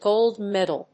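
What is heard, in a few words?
"gold medal", English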